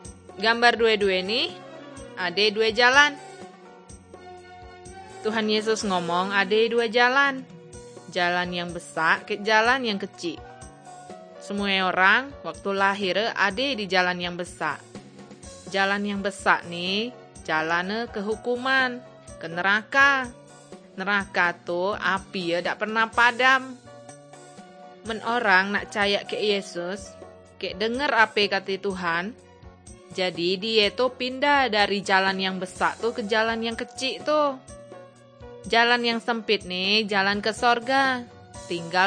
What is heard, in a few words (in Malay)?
kabar baik good news malay bangka